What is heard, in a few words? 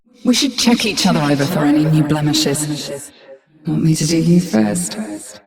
Ambient Barks, en